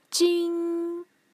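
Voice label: "jing", Mandarin, first tone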